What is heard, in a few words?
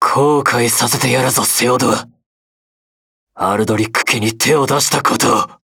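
start, Japanese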